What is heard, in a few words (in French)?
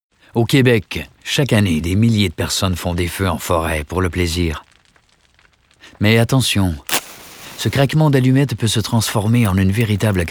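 Voix pub inventée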